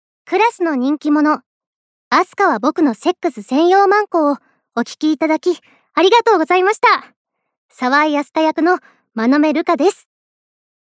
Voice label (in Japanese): フリートーク